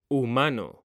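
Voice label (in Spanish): "humano" Spanish, male; mekanta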